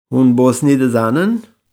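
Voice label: Kurdish, Hûn Bosnî dizanin?; Sprechen Sie Bosnisch?; Kurdisch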